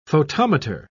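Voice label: "photometer", British English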